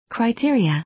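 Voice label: "criteria", English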